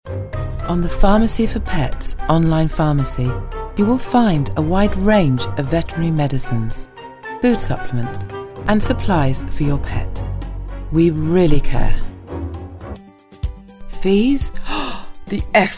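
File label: Corporate, voice-over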